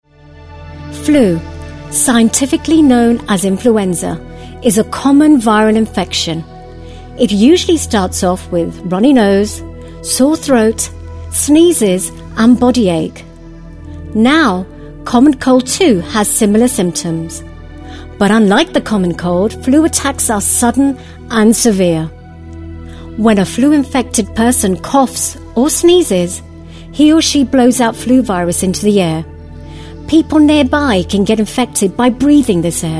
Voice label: narration uk eng, British English Voice Over, UK accent